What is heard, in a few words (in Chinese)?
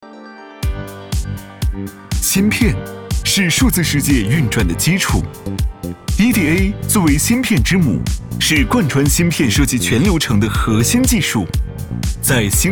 男 科技活泼; 芯片